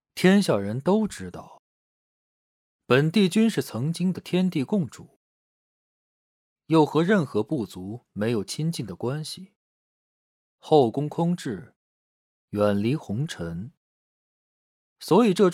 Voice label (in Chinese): 男播; 三生三世十里桃花; 东华帝君